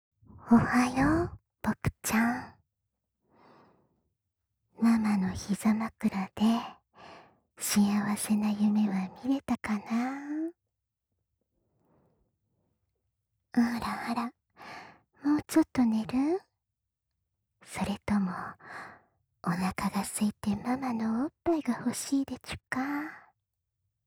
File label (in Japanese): 腕枕目覚まし ボイス